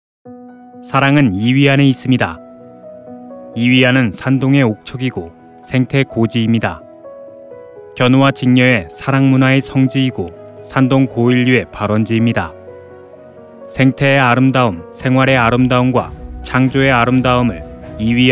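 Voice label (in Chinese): 韩语男_外语_小语种_专题_科技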